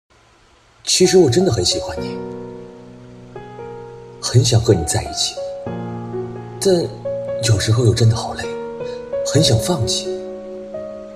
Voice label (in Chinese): 情感音频